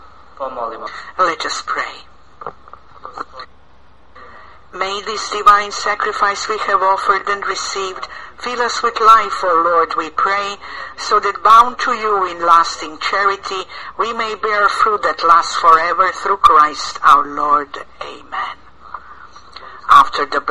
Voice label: Prayer and Announcement